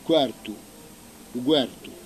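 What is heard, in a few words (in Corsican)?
"quartu", Corsican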